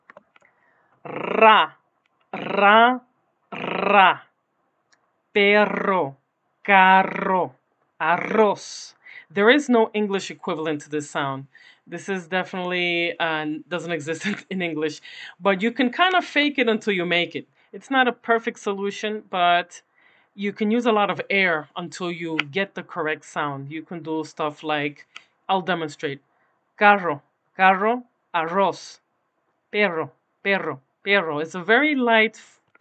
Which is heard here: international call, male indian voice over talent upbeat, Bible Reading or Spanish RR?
Spanish RR